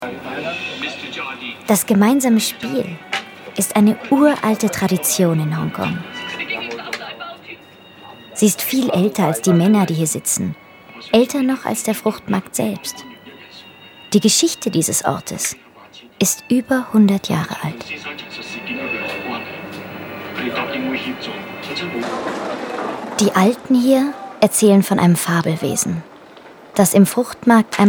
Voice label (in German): Kommentar Freundlich